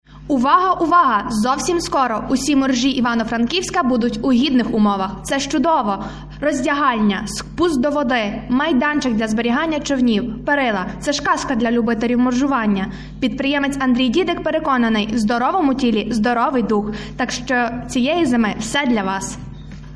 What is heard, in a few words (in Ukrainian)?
Реклама